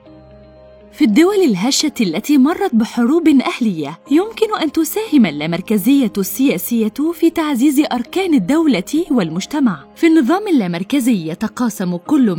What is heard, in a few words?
arabic documentaries